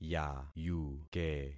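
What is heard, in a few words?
"yayuke", concatenated syllables; Affixing experiment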